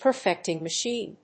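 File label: "perfecting machine", English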